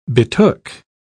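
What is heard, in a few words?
"betook", British English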